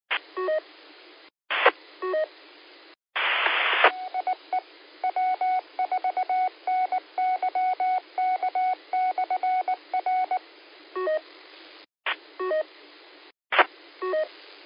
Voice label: qso rec simplex logic